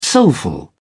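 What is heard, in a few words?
"soulful", British English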